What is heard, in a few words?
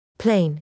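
"plain", English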